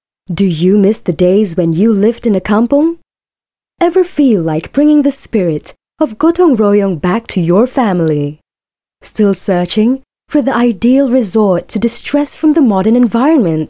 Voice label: Asian English; Kampung Sayang

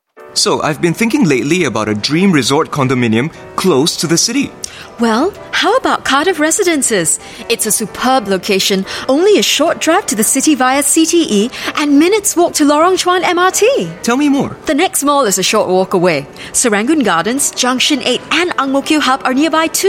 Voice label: Asian English; Cardiff Residences

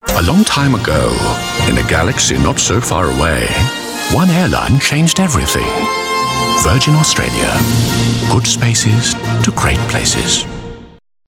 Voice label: AU English; Virgin-Australia

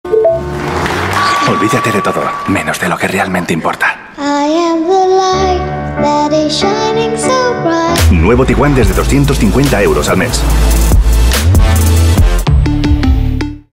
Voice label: European Spanish Commercial

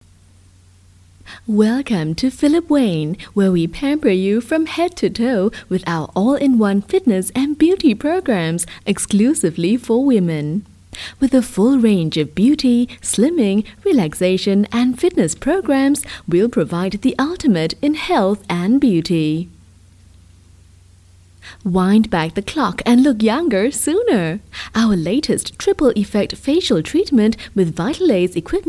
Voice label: Asian English Friendly

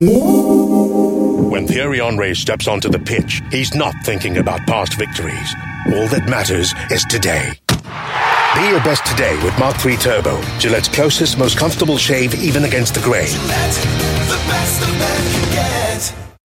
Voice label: Asian English TVC; Gillette Mach 3

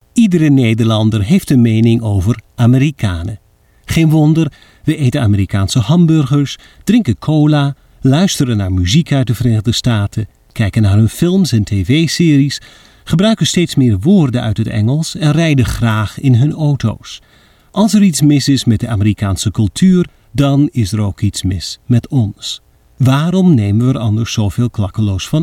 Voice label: Dutch, Dutch Male

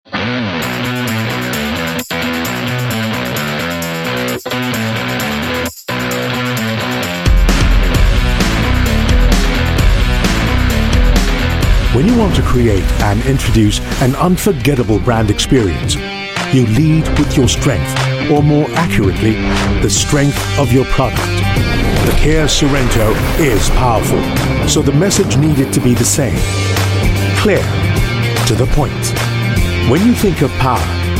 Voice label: Asian English; KIA-Man vs Machine

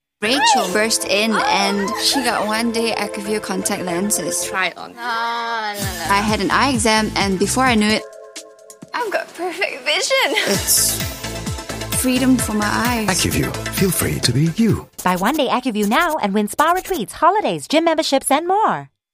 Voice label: Asian English; Acuvue

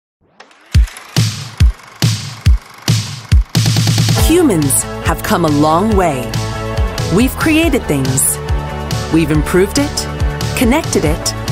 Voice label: Mid-Atlanic English; Accenture Explore a Career in Operations

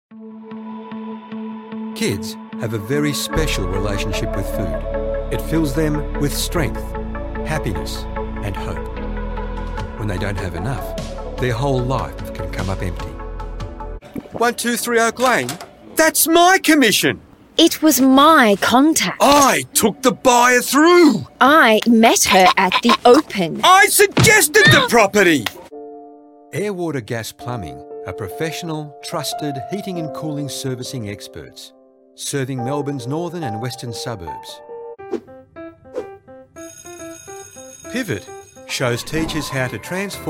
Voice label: AU English Voice Reel

